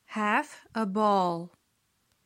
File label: have a ball pronunciation slow; Best English Nitra